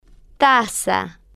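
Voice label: "taza", Spanish, female, slow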